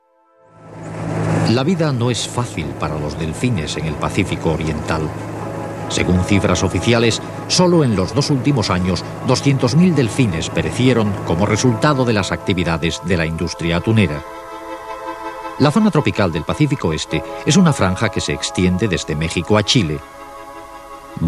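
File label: Spanish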